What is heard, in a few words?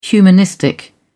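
"humanistic", English, uk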